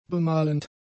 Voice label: "bemalend", German; adjectives

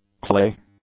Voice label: "clay", English; adjectives

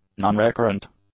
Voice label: "nonrecurrent", english; adjectives

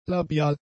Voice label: "labial", German; adjectives

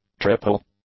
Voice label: "triple", English; adjectives

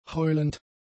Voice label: "heulend", German; adjectives

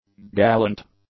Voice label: "gallant", English; adjectives

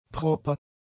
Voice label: "proper", German; adjectives

